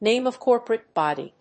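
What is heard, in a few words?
"name of corporate body", English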